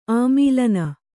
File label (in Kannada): "ಆಮೇಲಿನ", Kannada